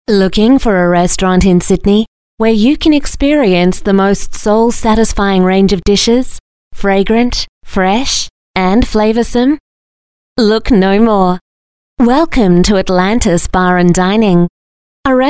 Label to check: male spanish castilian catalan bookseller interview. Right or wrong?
Wrong